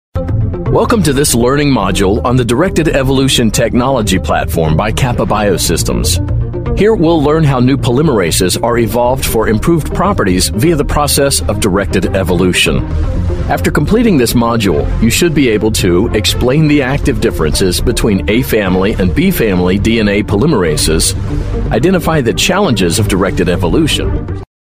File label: E-Learning